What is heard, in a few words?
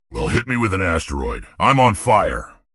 happy; fertig classic games